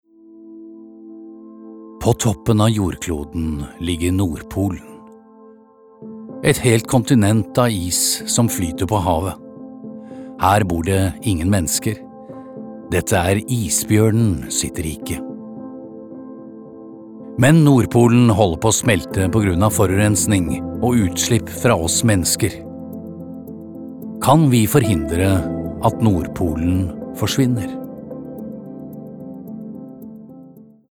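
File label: Norwegian documentary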